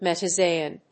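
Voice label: "metazoan", English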